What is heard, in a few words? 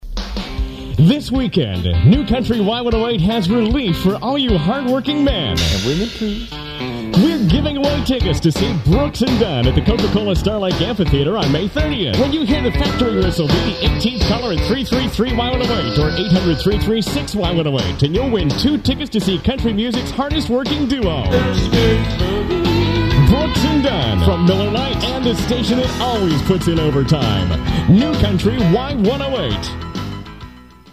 promo